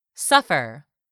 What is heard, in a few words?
"suffer", English; 3000 words